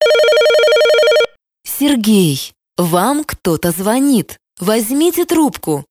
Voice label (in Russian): muzhskie imennye zvonki